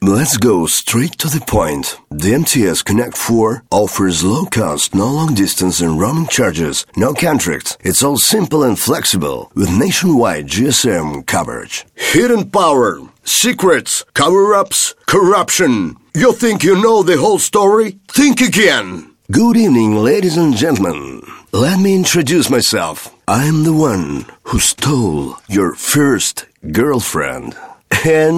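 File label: American English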